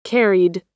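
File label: "carried", American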